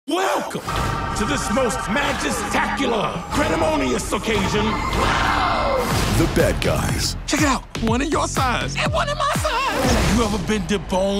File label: TV Spots; bad guys 2